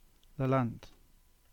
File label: "Lelant" EN